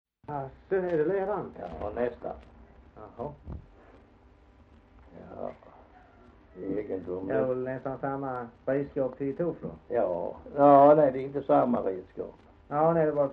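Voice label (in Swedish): Hasslöv Halland, Svenska sagor dialekter; Folkminnen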